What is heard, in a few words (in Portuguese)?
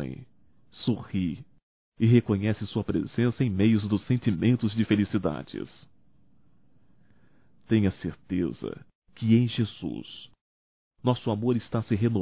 Ano Novo Romântico Masculino; Natal e ano novo; Telemensagens 2017